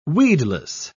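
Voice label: "weedless", British English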